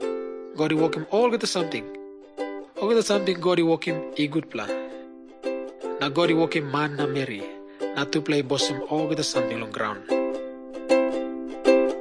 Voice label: tok pisin; png nt drama; can god forgive me